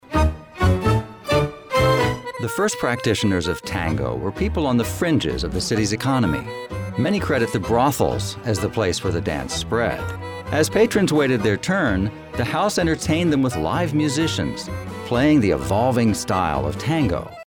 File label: Narration; Tango